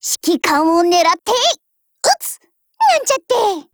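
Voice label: DIALOGUE, Japanese; MP446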